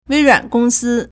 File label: "wei2ruan3gong1si1", Chinese